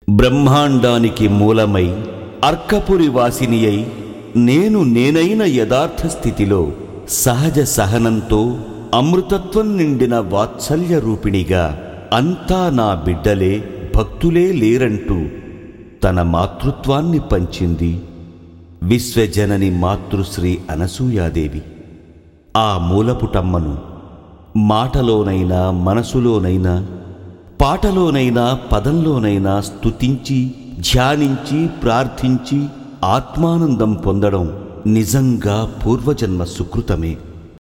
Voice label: Commentary